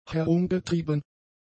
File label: "herumgetrieben", German; adjectives